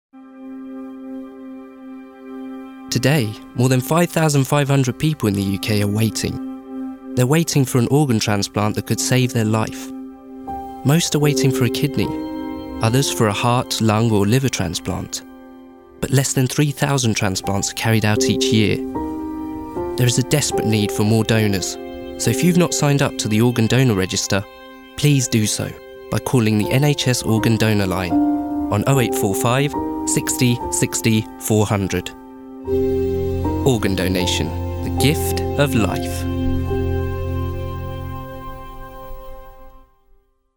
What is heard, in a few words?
narration